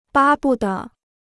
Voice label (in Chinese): "巴不得", Chinese